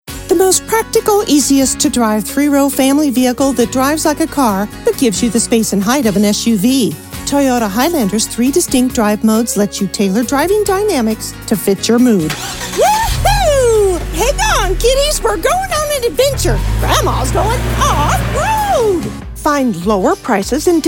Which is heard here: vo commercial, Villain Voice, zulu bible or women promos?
vo commercial